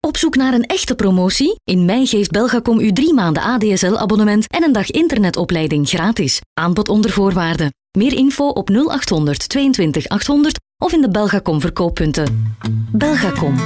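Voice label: Dutch Belgian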